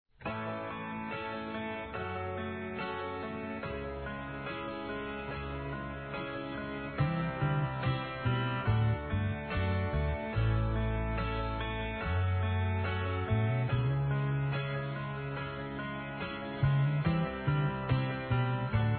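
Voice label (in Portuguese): ROMANTICA, Telemensagens, Românticas masculino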